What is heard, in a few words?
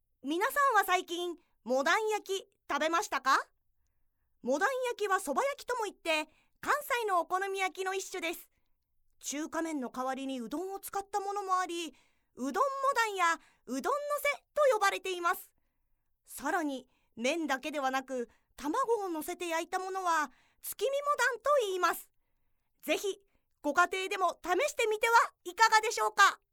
narration